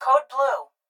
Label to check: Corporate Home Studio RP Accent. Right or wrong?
Wrong